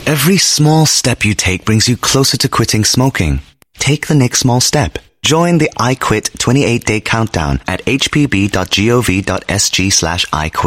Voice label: Asian English Quit Smoking; HPB